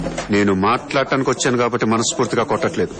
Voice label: Dialogue Ringtone; Businessman